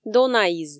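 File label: "донаиз", Komi, female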